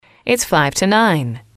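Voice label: its five to nine, English, female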